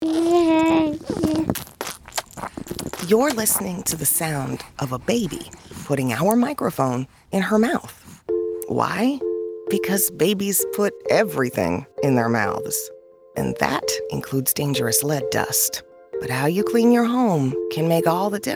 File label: Radio; DPH; environmental health; lead; prevent lead